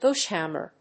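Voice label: "Bush hammer", English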